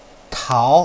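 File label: "tao2", Chinese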